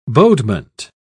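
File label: "bodement", British English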